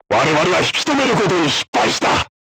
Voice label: voice message armor not pierce, crew tanks japanese